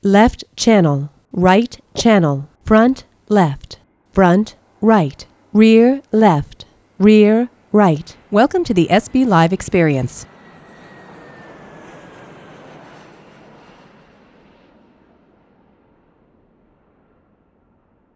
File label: female plane noise